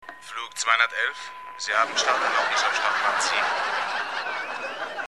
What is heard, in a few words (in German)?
Towerstimme; Umleitung; You're Driving Me Crazy, You're Driving Me Nuts